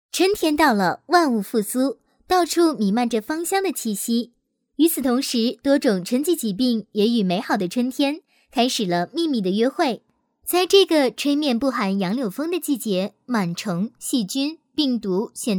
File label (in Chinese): 女 专题 科普大讲堂轻松活泼